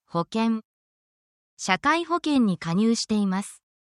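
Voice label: Japanese word, essential Japanese phrases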